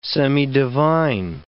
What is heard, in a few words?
"semidivine", English